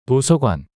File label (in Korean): "도서관" 단어 남자